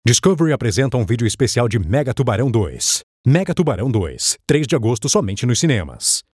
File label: portuguese brazilian tv shows